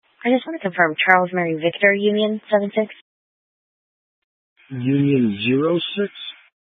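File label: Sheriff Dispatch, Scanner audio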